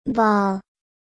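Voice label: "ball", English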